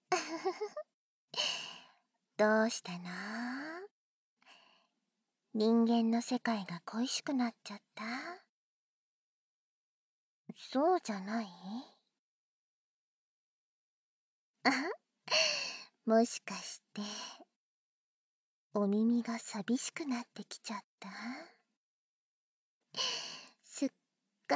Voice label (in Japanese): 両耳触手攻め（バイノーラルマイク 効果音なし）